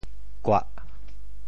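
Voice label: "kuah4", Teochew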